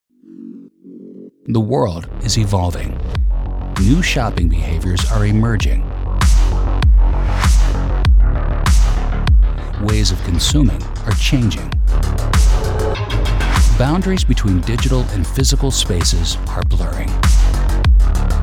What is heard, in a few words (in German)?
Imagefilme Profi Publikum; NATIVE PROFISPRECHER